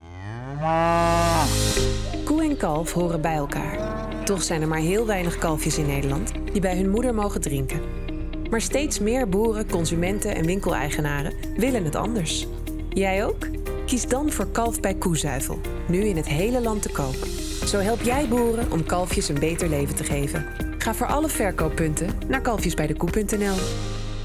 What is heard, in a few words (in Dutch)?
Commercial Radio Full Mix